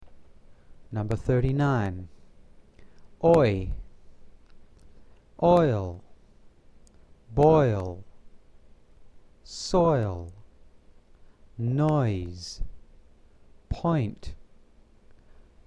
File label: OI & OY, VOWEL SOUNDS; VOWEL SOUNDS & CONSONANT BLENDS